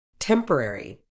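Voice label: "temporary", British English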